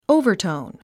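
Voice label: "overtone", English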